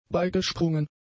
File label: "beigesprungen", German; adjectives